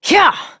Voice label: Horse Start Adult; Duviri